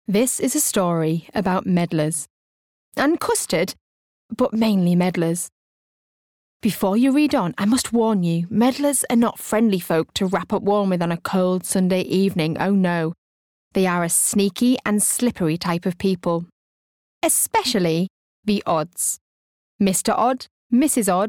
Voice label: audiobook

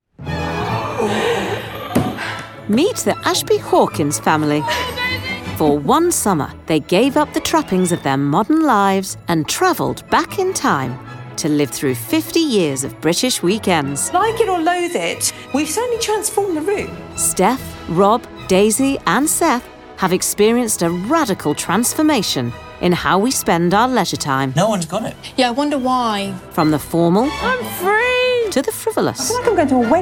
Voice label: narrative

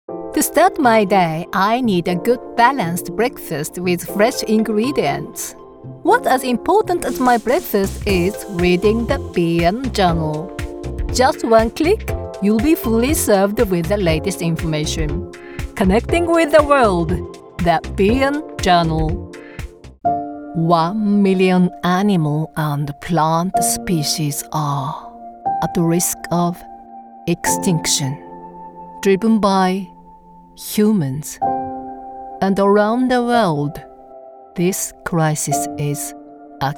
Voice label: Commercial in English

